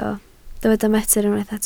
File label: "metr", Welsh